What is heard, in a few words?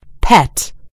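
"pet", British English; gcompris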